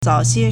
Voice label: "zao3xie1", Chinese